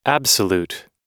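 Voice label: "absolute", American English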